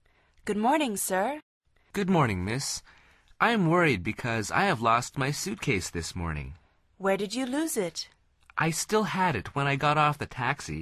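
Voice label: dialog, Conversación en Ingles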